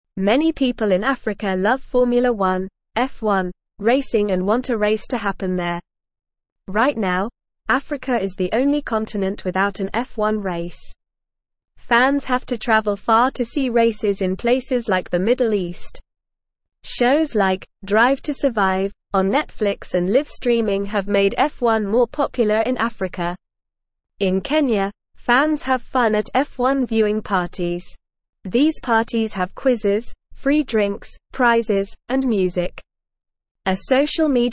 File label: English NORMAL Reading; Formula 1 Racing Africa Wants a Race